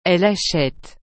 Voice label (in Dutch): "elle achete", frans; acheter